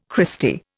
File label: "Christy", Indian